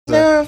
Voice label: high pitched "no"